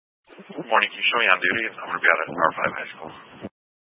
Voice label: Police 1 Dispatch

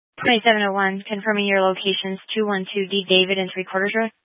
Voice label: Mesa County Sheriff Dispatch; scanner

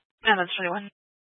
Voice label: Fire Dispatch, scanner

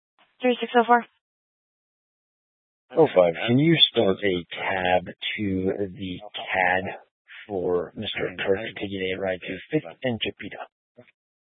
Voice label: Police Department Dispatch, scanner

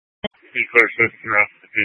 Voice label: Police Department Dispatch, scanner

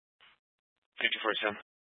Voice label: Fire Department Dispatch; Grand Junction

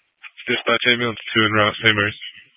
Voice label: Fire Department Dispatch, scanner; Grand Junction

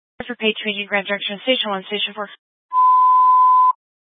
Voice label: Fire Dispatch, scanner

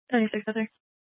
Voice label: Police Dispatch; Police 1 Dispatch

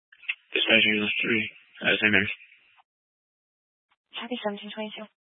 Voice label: Fire Department Dispatch; Grand Junction

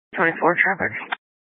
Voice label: Sheriff Dispatch, scanner

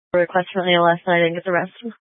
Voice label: Fire Dispatch

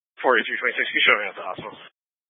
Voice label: Sheriff Dispatch, scanner; Mesa County

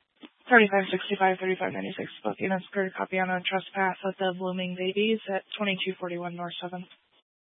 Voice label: Police 1 Dispatch, Scanner audio recording

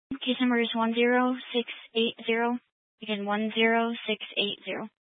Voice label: Mesa County Sheriff Dispatch

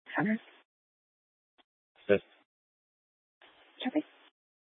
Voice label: Police Dispatch

